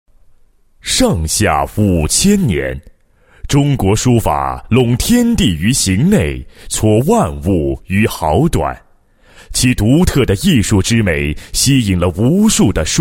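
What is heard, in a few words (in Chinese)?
男厚重大气-样音, 国语男声配音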